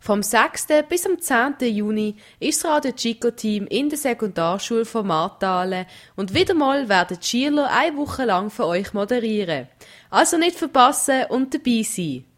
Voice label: Jingle; Marthalen